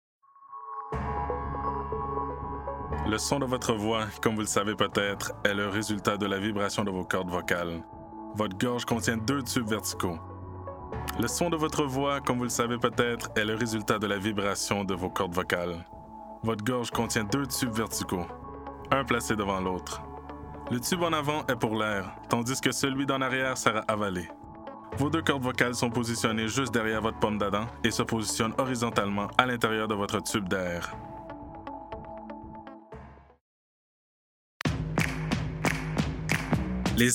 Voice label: francophone fr normatif accent anglais accent francais accent haitien

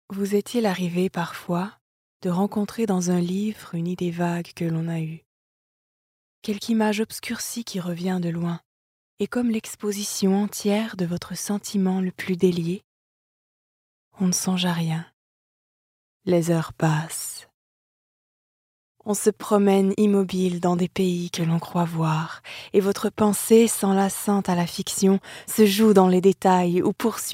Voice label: francais normatif narration charmante souriante intime adulte; madame bovary

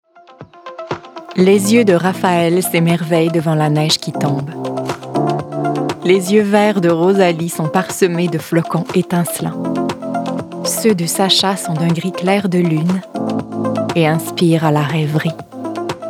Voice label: annonceur douce rassurante medium adulte

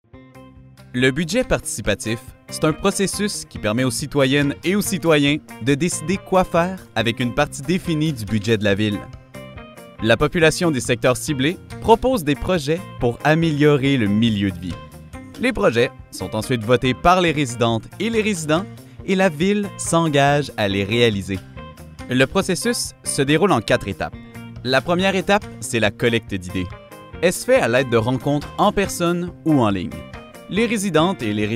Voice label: narration fr qc naturel informatif souriant diction; budget participatif; exceptionnelle

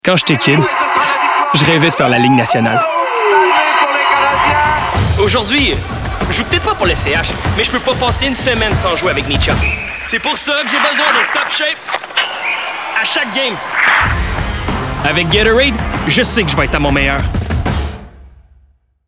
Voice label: fr qc joual amical avec sourire energique medium; gatorade

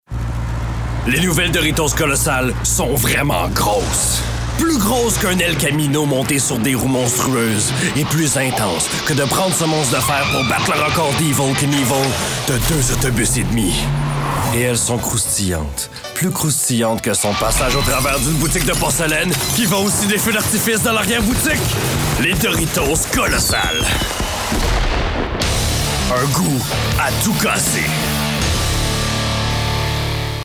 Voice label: fr qc naturel annonceur debit ultra rapide energique comedie adulte; doritos; grave

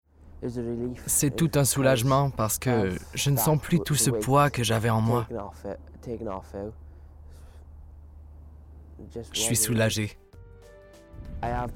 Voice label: surimpression vocale

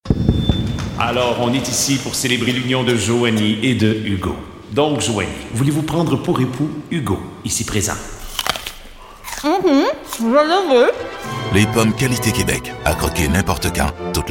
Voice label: pub les pommes du qc

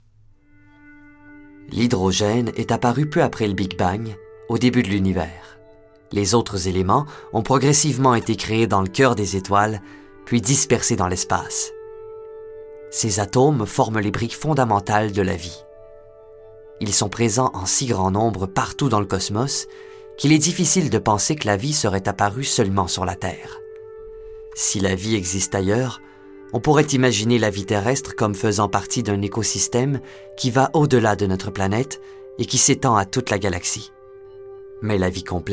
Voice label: narration francais normatif quebecois naturel explicatif narratif jeune; big bang

